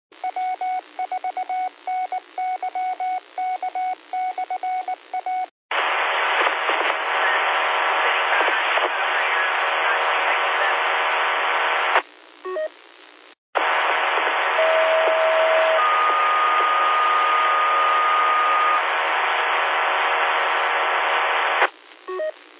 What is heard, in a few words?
qso rec simplex logic